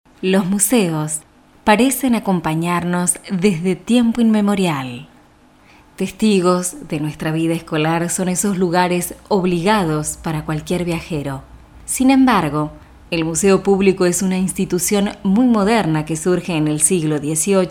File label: locutora argentina argentinian voiceover; Spanish- Argentinian female voiceovers